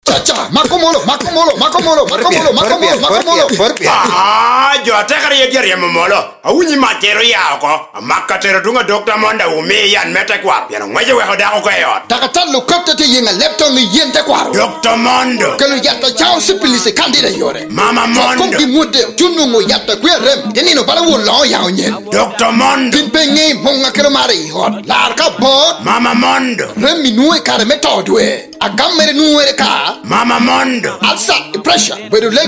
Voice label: advert; dr mondo